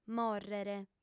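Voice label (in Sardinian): "mòrrere", female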